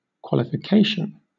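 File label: "qualification", English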